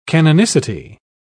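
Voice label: "canonicity", British English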